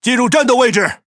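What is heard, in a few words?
Speech Pilots Bomber Engage, Chinese; World Of Warships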